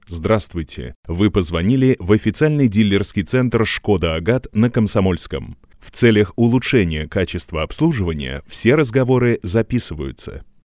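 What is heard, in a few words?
IVR Russian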